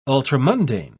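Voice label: "ultramundane", British English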